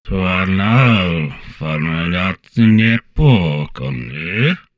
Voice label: humanoid portrait male; Stellaris; humanoids

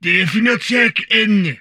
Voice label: humanoid portrait male; Stellaris; humanoids

